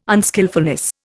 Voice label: "unskilfulness", Indian

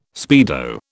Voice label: "speedo", British

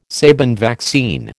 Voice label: "Sabin vaccine", American

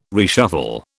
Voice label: "reshuffle", British

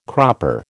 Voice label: "cropper", American

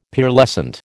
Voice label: "Pearlescent", American

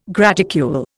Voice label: "graticule", Indian

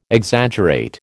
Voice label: "Exaggerate", American

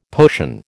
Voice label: "potion", American

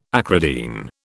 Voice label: "acridine", British

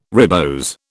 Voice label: "ribose", British

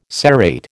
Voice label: "serrate", American

